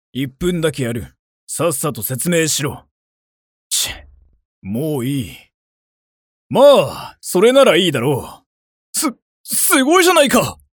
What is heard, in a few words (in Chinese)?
日语男声 信息流游戏